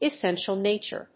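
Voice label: "essential nature", English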